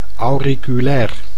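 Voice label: "auriculair", Dutch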